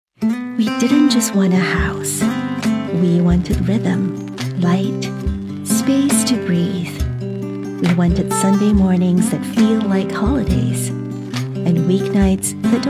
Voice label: english international real estate, Bienes raíces; Una actriz de voz cálida, amigable y versátil con atractivo global.; singaporean english; Trailers de películas